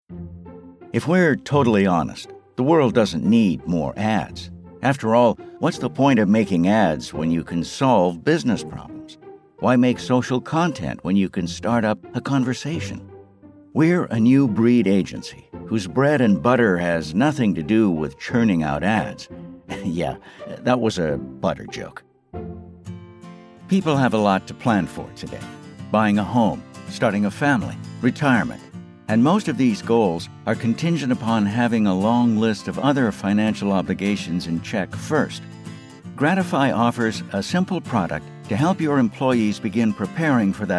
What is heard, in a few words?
Corporate B2B